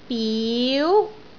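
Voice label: "biu2", Cantonese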